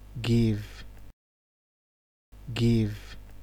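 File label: "give", Nigerian Pidgin, male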